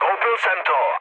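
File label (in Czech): Czech aircraft destroyed, mood medium; War Thunder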